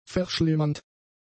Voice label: "verschlimmernd", German; adjectives

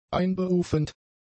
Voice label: "einberufend", German; adjectives

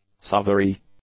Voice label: "savoury", English; adjectives

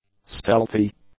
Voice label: "stealthy", English; adjectives

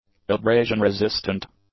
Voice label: "abrasion-resistant", english; adjectives

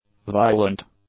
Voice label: "violent", English; adjectives

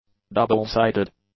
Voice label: "double-sided", English; adjectives

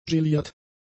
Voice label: "geliert", German; adjectives

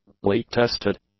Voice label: "leak-tested", english; adjectives

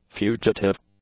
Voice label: "fugitive", English; adjectives

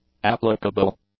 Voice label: "applicable", English; adjectives

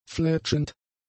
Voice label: "fletschend", German; adjectives

